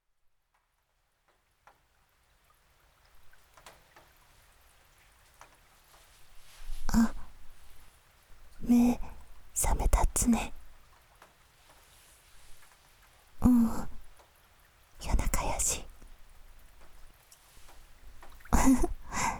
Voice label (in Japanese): 宮崎弁 (雨降りバージョン), ASMR; 田舎の古宿ン娘の夜伽